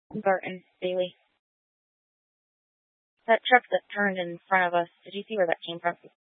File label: Police Dispatch, scanner; Police 1 Dispatch